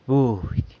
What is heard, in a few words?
"budy", San Lucas Quiavini; chicken